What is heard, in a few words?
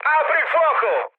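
Italian attack position mood med, speech; War Thunder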